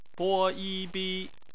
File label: bi, Chinese Phonetics; BPMF spelling